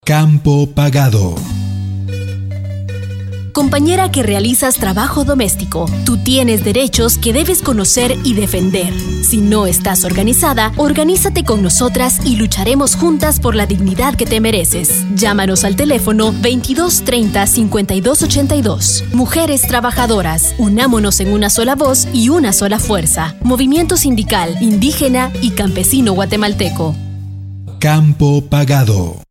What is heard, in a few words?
spot radio